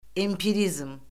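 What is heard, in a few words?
"empirizm", Azerbaijani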